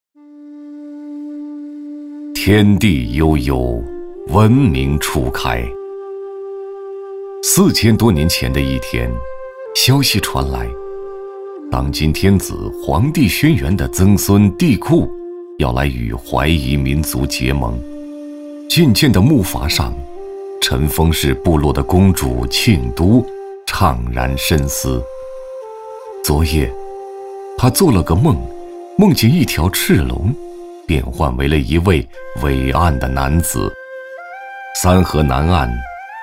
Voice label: 男 纪录片 古代历史

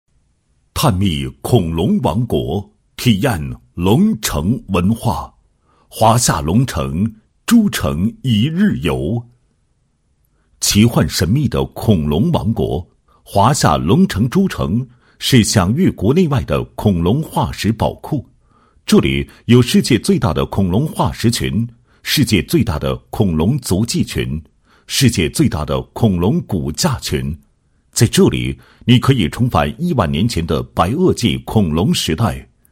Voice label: 男 旅游 一日游解说词; 华夏龙城一日游解说词

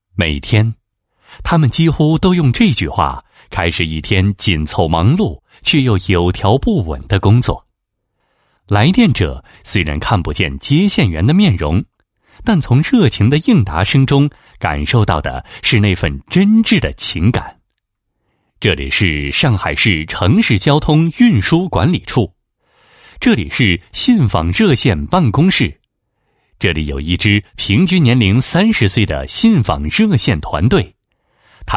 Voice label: 男 旁白, 配音; 信访热线办公室宣传

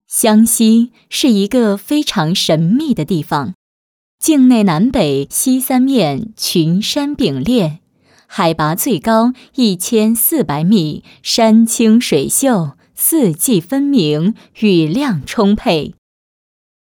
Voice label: 女 专题 糯米煎锅

